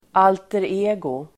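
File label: "alter ego", Swedish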